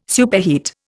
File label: "superheat", Indian